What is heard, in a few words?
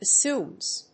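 "Bassoons", English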